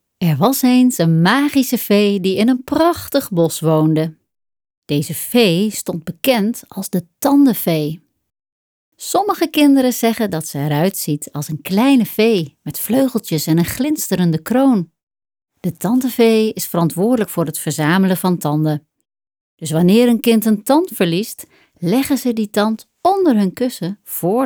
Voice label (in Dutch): kinderboek